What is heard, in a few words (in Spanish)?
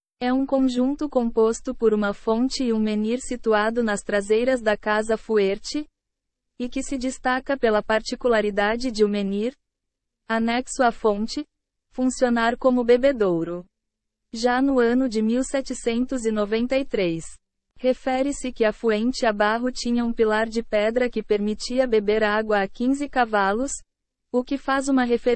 AUDIO PORTUGUES; FUENTE DE ABAJO Y MENHIR